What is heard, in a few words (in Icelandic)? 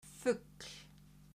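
"fugl", Icelandic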